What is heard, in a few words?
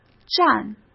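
"占", Mandarin Chinese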